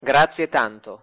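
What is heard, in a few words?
pronunciation "grazie tanto", Italian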